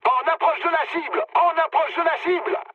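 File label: near waypoint, mood medium, French; War Thunder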